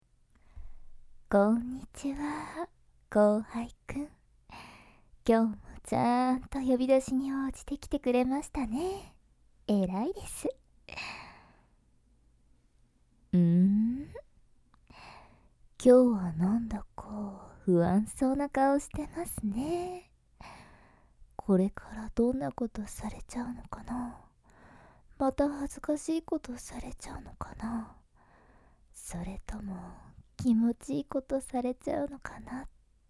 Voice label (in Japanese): 意地悪寸止め焦らし手コキ; 甘々いじめ ～ちょっぴりSで意地悪な先輩に弱味を握られいじめられちゃう～